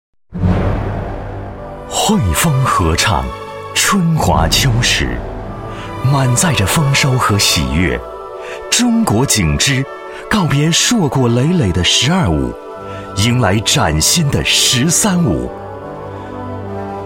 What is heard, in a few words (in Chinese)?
男 专题 主旋律, 国语男声配音; 景芝酒